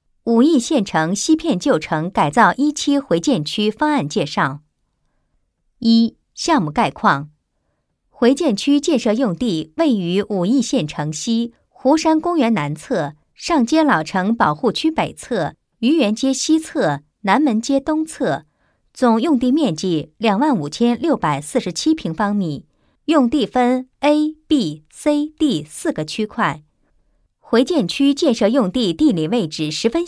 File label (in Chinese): 女,多媒体 旧城改造